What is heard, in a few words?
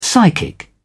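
"psychic", British English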